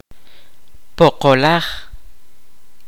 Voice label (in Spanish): "polvo", kaqchikel